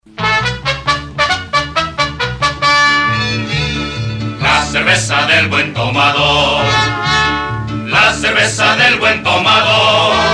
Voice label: Spanish Ad; Batton Barton Durstine and Osborn; Lucky Lager